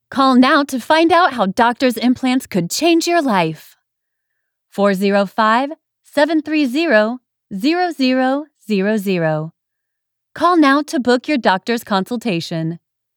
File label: Dental Implants Radio, american female voice over art, conversational female adult vo